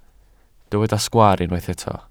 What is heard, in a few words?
"sgwaar", Welsh